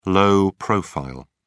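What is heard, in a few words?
"low profile", British English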